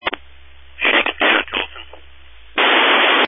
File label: Family Radio Service (FRS)